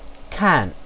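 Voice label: "kan4", Mandarin Chinese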